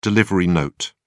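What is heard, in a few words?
"delivery note", British English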